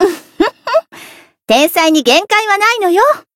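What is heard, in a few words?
Voice growth Japanese; ashuthia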